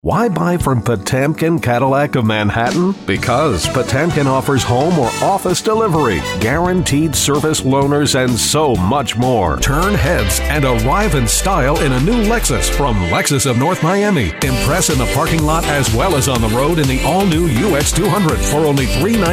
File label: Auto Dealer VO